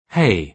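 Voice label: "hay", British English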